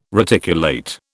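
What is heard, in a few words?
"reticulate", British English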